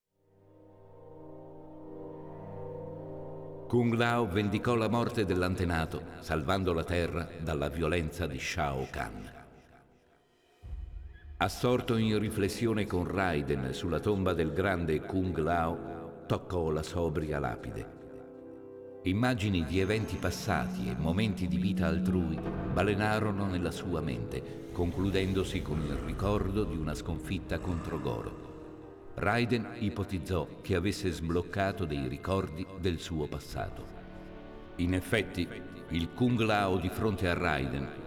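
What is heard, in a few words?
music voice over endings Italian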